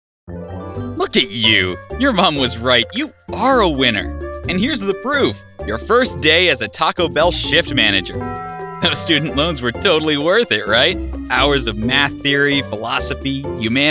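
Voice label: narration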